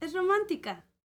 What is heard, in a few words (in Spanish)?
"ROMANTICA", MEXICAN; ILIO; Vocal Planet VOL-5